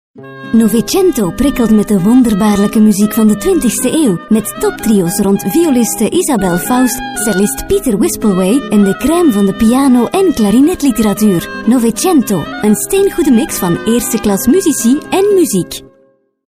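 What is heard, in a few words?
flemish female commercial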